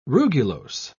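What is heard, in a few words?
"rugulose", English, British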